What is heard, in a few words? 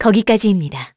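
Vox Skill, Korean; Glenwys; kingsraid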